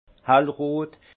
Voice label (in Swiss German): "hellrot", alsace; vin; vermillon